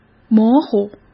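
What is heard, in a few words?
"模糊", Mandarin Chinese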